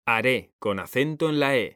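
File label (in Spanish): "haré", Spanish, male; mekanta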